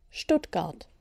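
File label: "Stuttgart", German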